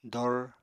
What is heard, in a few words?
"dor", Apatani